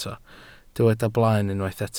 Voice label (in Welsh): "blaen", Welsh